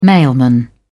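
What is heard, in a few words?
"mailman", British English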